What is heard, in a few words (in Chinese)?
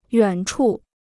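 "远处" Chinese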